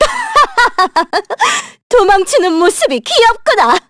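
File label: Vox Skill, Korean; heroes; Miruru L; kings raid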